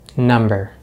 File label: "number", English; Consonants in American English; easypronunciation